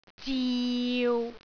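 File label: "ziu6", Cantonese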